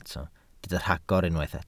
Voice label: "rhagor", Welsh

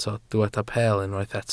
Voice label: "peel", Welsh

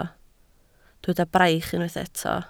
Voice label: "braich", Welsh

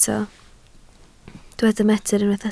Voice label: "metr", Welsh

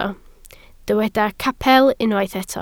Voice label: "capel", Welsh

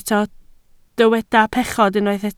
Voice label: "pechod", Welsh

